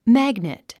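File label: "magnet", English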